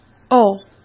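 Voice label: "哦" Mandarin Chinese; hsk; chinese-flashcards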